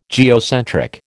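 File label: "geocentric", American English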